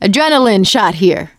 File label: adrenaline, survivor voice; producer